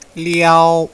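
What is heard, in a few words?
"liao1", Chinese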